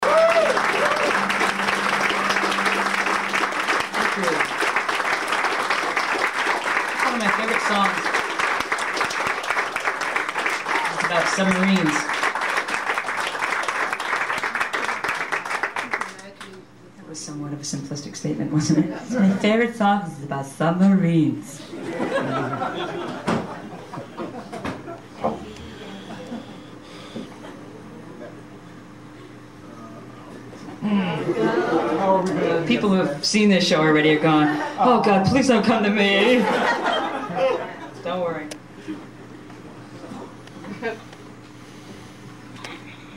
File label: Live Patter, Tin Angel